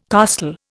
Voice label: "costal", Indian